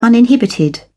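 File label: "uninhibited", British English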